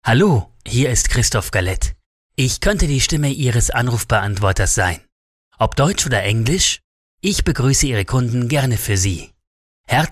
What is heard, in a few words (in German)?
Telefonansagen